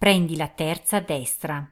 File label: 3rd Right, Italian female